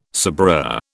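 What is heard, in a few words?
"sabreur", British English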